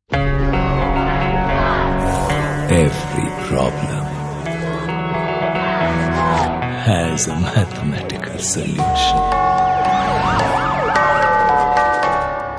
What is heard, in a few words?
Dialogue Ringtone; COBRA Movie